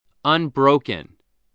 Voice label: "unbroken", English spelling voice